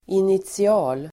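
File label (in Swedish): "initial", Swedish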